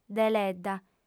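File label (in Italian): lingua sarda, female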